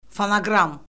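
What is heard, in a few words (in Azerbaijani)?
"fonoqram", Azerbaijani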